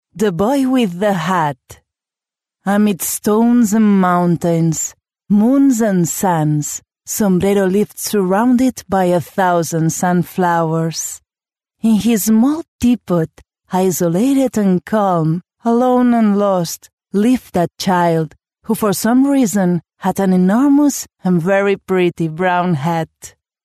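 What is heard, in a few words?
Catalan, female, English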